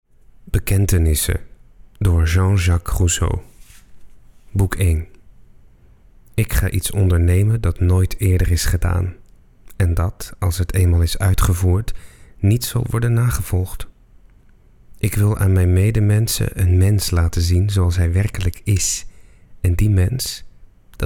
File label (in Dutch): Voorlees